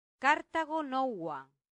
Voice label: "cartago nova", latin; santillana

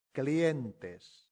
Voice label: "clientes", latin; santillana

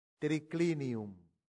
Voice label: "triclinium", Latin; santillana

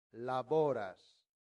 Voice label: "laboras", latin; santillana

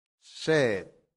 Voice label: "sed", Latin; santillana

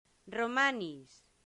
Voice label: "romanis", latin; santillana

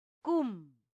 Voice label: "cum", latin; santillana

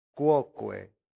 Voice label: "quoque", latin; santillana

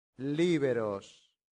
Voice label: "liberos", latin; santillana

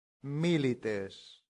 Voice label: "milites", latin; santillana

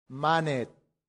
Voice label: "manet", Latin; santillana

